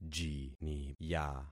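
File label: "jiniya", concatenated syllables; Affixing experiment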